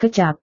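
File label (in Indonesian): "kecap", Indonesian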